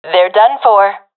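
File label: i killed enemy, professional female; vo; Counter Strike Model Pack; CS:GO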